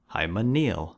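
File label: "hymeneal", US English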